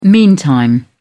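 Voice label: "meantime", British English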